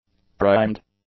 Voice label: "primed", english; adjectives

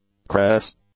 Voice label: "cress", English; nouns

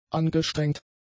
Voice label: "angestrengt", German; adjectives

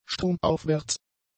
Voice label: "stromaufwärts", German; adjectives

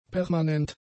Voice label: "permanent", German; adjectives

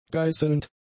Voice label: "geißelnd", German; adjectives

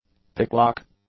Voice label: "picklock", English

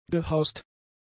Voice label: "gehaust", German; adjectives

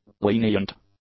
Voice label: "lenient", english; adjectives

